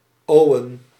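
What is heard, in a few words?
"Owen" Dutch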